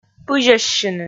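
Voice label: "пызьӧссьыны", Komi, female; kpv audio dict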